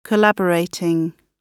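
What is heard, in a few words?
"collaborating", British English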